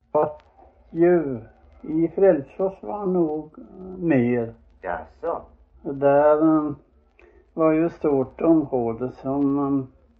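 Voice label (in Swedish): Frillesås Halland, dialekter; Folkminnen; Svenska sagor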